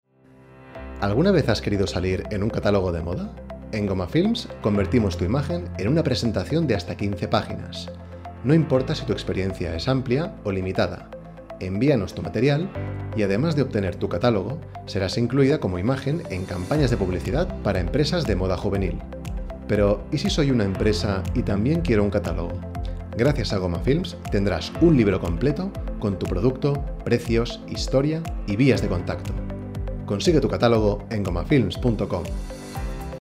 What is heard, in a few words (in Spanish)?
anuncio; catalogos; ghomafilms